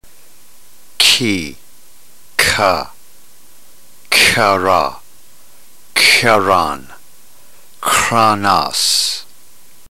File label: drill, ntgreek